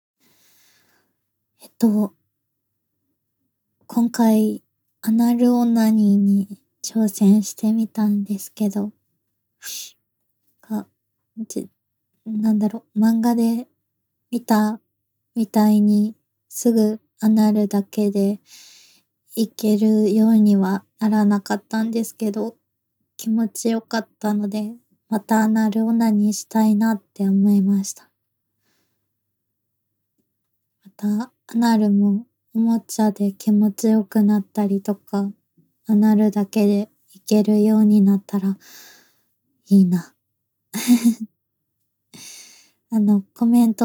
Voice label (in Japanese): アフタートーク, ASMR